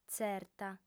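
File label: "tzerta", Sardinian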